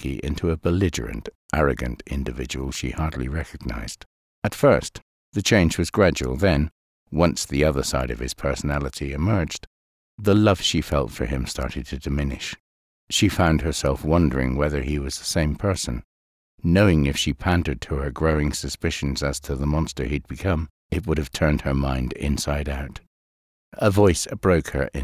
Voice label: Narrator Reel

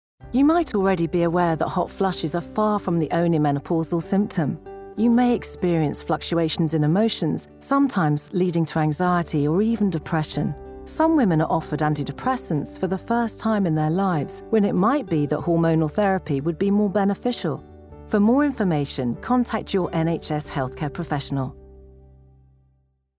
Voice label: Narration HEALTHCARE

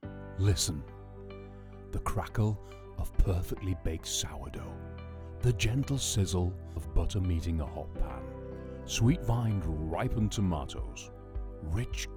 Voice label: Food Ad

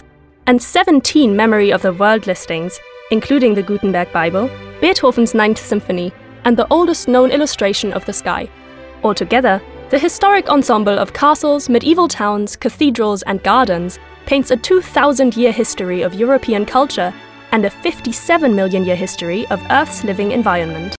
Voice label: German accented British English

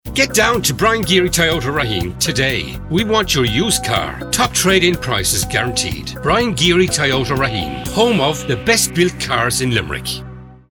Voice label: TOYOTA TAG

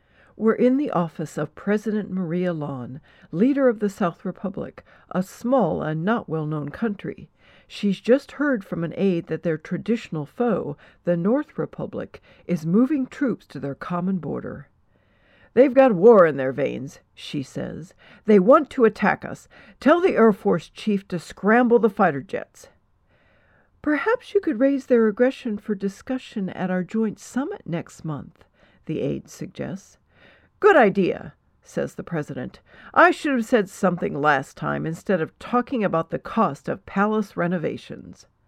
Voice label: Animated Video multiple characters

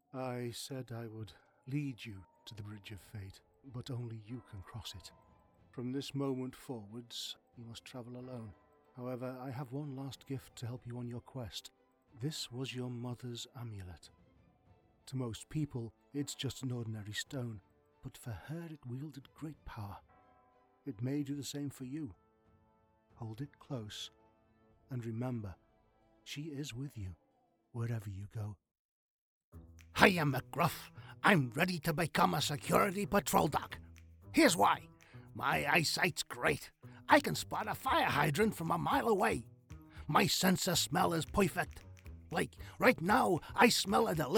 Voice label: ANIMATION

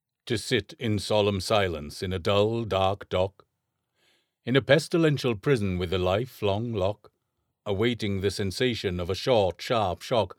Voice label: Dry Test

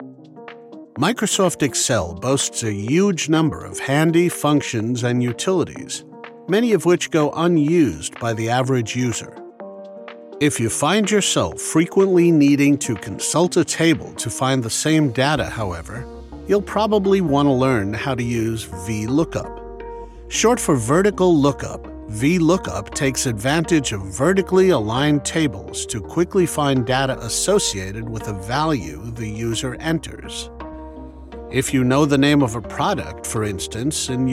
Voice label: Male E Learning

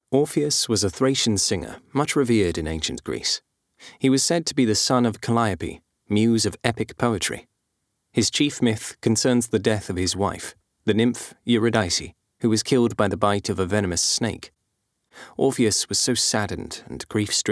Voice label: Narration

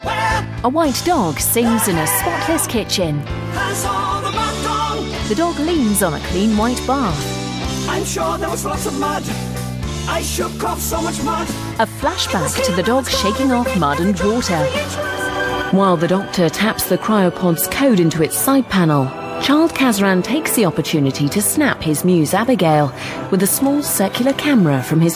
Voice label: AUDIO DESCRIPTION

